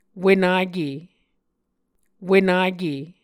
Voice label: "wenake", Muscogee

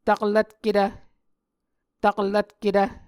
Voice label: "taklvtketv", Muscogee

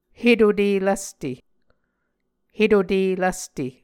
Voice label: "hetute lvste", Muscogee

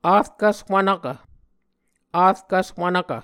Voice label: "vrkvs wvnvkv", Muscogee

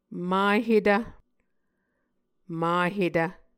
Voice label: "mahetv", Muscogee

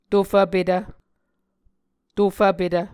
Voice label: "tofvpetv", Muscogee

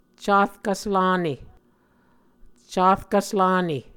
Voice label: "carkvslane", Muscogee

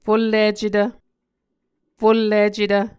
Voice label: "fullicetv", Muscogee

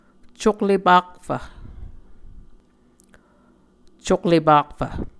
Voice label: "coklepakfv", Muscogee

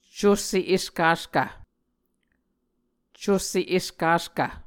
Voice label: "cusse eskaskv", Muscogee

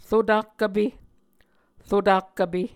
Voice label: "rotakkvpe", muscogee

